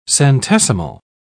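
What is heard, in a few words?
"centesimal", British English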